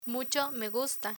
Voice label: "mucho me gusta" Spanish; learn spanish

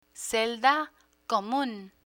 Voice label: "celda comun", Spanish; correctional staff vocabulary

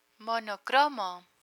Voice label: "monocromo", Spanish; technology vocabulary; learn spanish

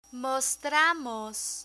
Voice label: "mostramos" Spanish; verb mostrar conjugation; learn spanish

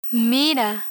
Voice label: "mira" Spanish; learn spanish

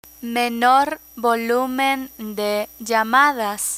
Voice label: "menor volumen de llamadas", Spanish

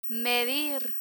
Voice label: "medir", Spanish; irregular spanish verbs; learn spanish

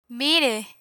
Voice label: "mire" Spanish; learn spanish

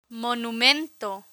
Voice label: "monumento" Spanish; vocabulary; learn spanish

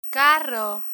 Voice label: "carro", Spanish; pronunciation; learn spanish

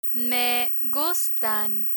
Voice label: me gustan, Spanish; learn spanish

